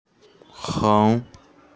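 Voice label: "heng2" Chinese, female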